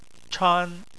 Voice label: "chan1", chinese

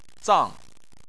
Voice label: "zang4", Chinese

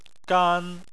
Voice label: "gan1", Chinese

